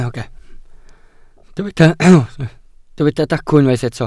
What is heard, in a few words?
"dacw", Welsh